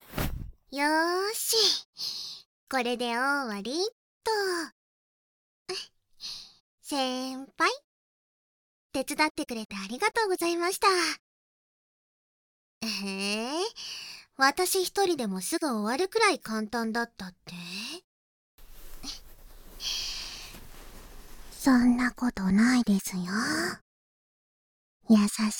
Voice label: バイノーラルマイク 効果音あり大きめ; 木曜日 体育倉庫で寸止めパイズリフェラ

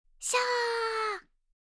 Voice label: 「シャー！」 叱る猫, オノマトペ; 黒崎オノマトペ

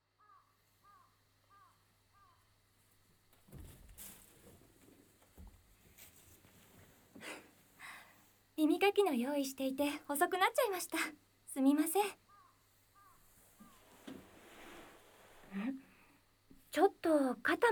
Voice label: 耳かきと甘い声音, ASMR; Track5「耳かきと甘い声音」

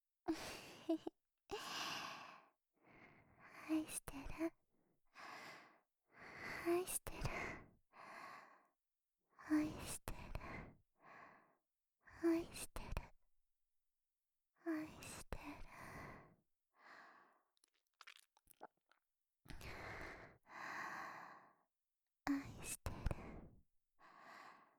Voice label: "あいしてる", 右耳

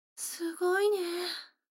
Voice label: 女の子台詞, ASMR

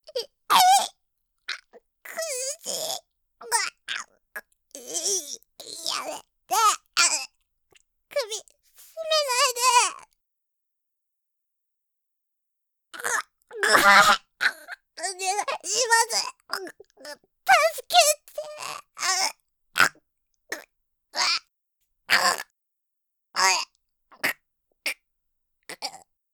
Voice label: スナッフ・ボイス; スナッフボイス・本編未収録

